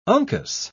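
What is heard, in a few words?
"uncus", British English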